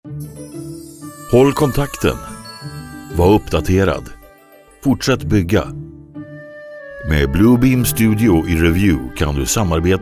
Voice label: Industry commercial